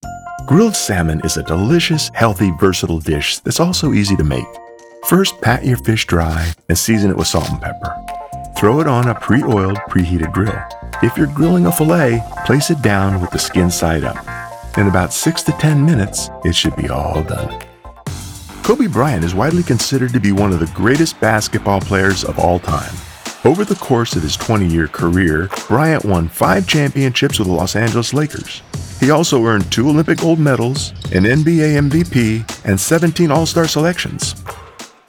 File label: Narration